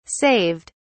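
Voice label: "saved", English, British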